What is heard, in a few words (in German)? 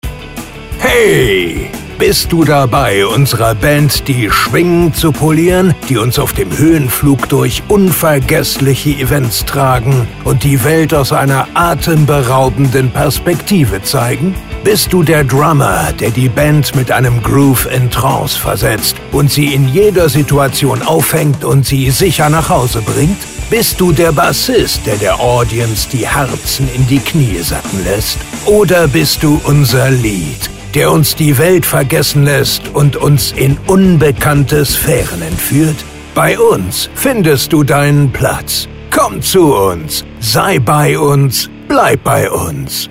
rockig, werbesprecher; deutscher Werbesprecher